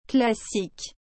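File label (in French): "classique", French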